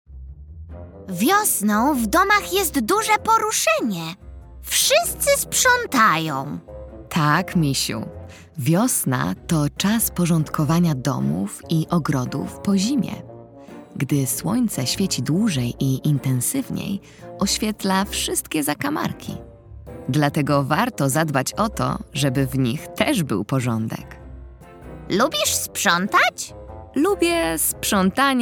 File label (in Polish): narracja; czasopismo mis; wiosna; rozmowa